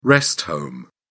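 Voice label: "rest home", British English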